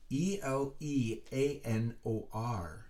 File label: "Eleanor", letters being spelled out